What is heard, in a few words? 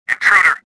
anger; XBOX; stofficer